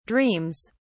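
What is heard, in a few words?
"dreams", British English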